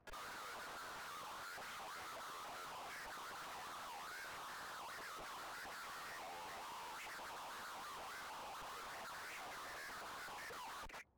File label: Radio chatter